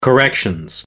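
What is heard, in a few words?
"corrections", British English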